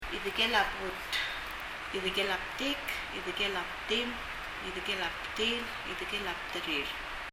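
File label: "idekel a but" Palauan, buttock; 尻; 発音; 身体の各部位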